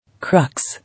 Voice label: "Crux" English